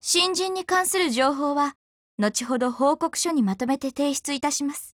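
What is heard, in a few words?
build over, Japanese; RPK203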